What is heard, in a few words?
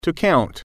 "count", English